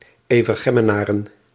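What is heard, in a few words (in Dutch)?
"Evergemmenaren", Dutch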